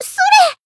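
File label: attack, Japanese; melody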